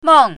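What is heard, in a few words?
"meng4", Chinese pinyin, fourth tone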